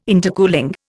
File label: "intercooling", Indian